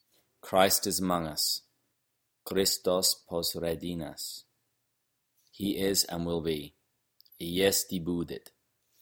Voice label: Christ Is Among Us Church Slavonic